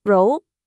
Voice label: dragon; cn